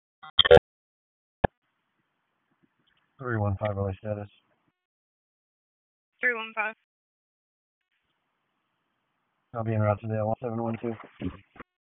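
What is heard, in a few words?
Raleigh Police Northeast District Dispatch; p25; wake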